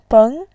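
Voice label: "beng", Mandarin Chinese, tone 2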